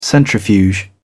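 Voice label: "centrifuge", British English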